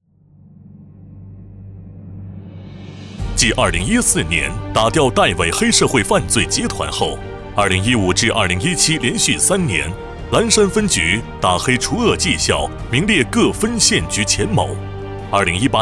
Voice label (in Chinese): 男 政府专题 大气; 岚山分局